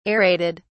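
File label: "aerated", British English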